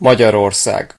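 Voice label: "hungary", Hungarian; gcompris; geography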